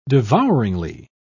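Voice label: "devouringly", British English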